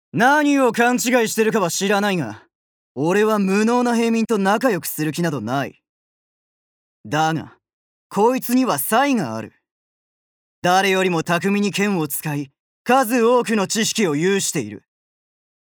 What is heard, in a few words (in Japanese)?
アニメ